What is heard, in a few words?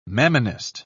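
"mammonist", English, uk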